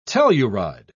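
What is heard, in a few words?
"telluride", British English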